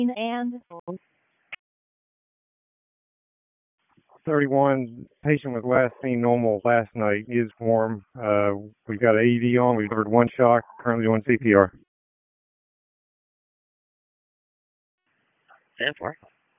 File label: PS TAC, p25; wake p25